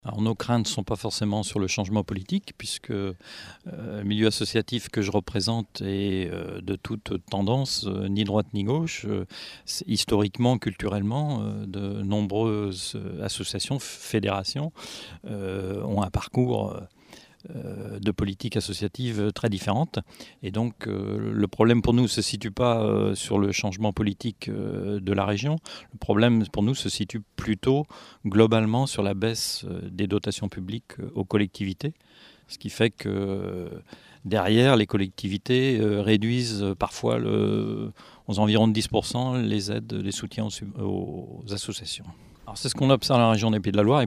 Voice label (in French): radio interview